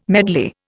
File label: "medley", Indian